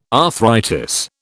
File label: "arthritis", British